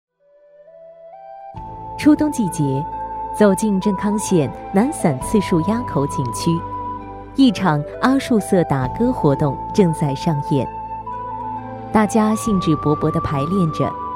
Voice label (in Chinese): 女 国 大音 非遗介绍